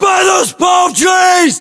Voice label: US landmark near palmtrees, battlechatter, english